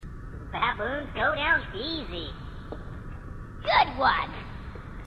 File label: grunt dopey; h2dialogue